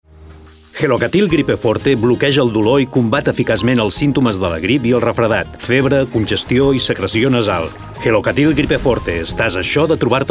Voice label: male catalan medicine comercial; ideasonora